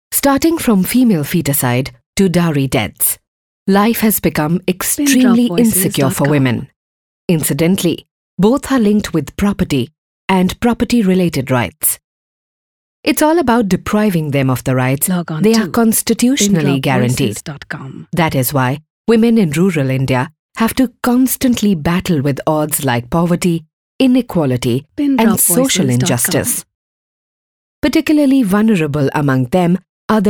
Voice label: English